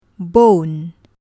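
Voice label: "bone", English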